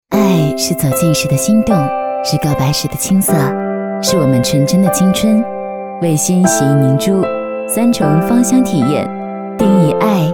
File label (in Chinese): 女 广告 洗衣凝珠 自然清新; 卫新洗衣凝珠